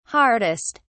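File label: "hardest", British English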